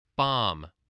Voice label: "bomb", English

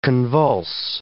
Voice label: "convulse", English

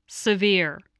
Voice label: "severe", spelling

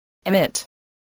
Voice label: "emit", spelling

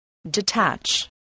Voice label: "detach", english spelling

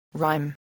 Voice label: "rhyme", English

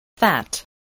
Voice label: "fat", voice

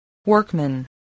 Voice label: "workman", voice, english

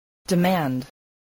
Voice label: "demand", English

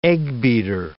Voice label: "eggbeater", English

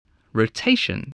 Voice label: "rotation", English spelling

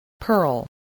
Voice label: "pearl", English, voice

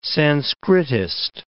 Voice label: "Sanskritist", English

